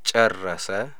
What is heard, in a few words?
he finished, amharic